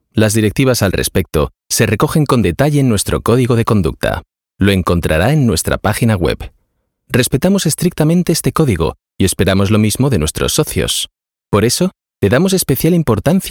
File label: elearning